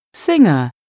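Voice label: "singer", English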